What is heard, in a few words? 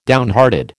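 "downhearted", American English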